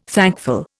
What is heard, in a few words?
"thankful", Indian